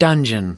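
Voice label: "dungeon", US English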